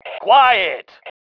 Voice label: quiet, male